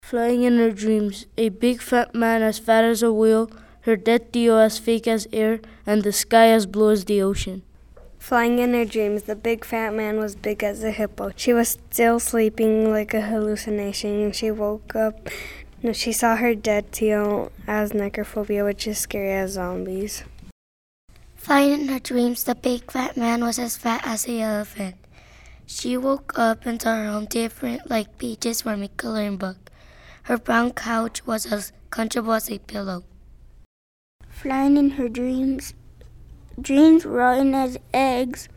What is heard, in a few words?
voices group